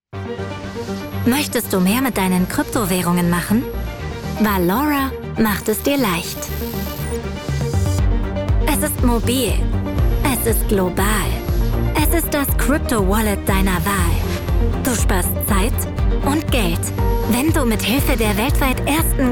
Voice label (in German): Werbung; Valora